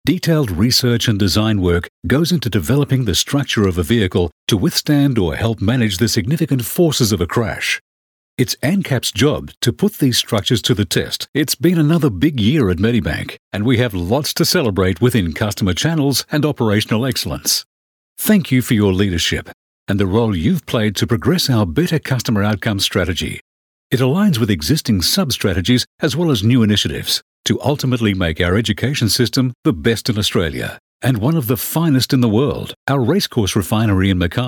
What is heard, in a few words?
Corporate